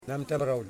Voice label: "Feet", Yimas